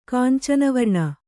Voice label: "ಕಾಂಚನವರ್ಣ", Kannada